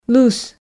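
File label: "лыс" Komi, female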